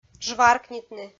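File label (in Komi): "жваркнитны", Komi, female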